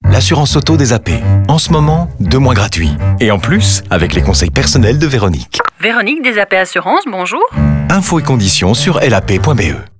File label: Radio FR; DVV; Auto